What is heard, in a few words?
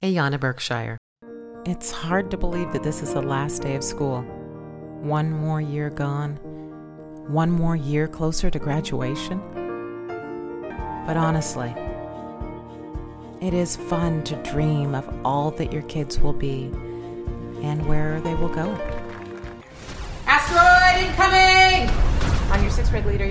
women commercial